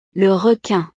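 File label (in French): "le requin", French; El tiburón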